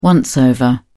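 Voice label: "once-over", English, British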